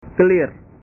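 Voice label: "kelir", Indonesian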